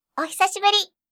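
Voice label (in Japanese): "ohisashiburi", Japanese; aisatsu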